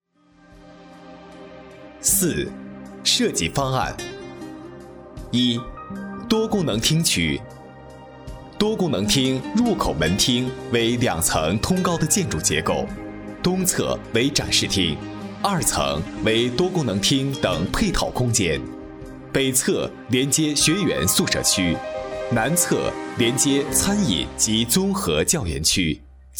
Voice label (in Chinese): 男 年轻正式; 移动管理学院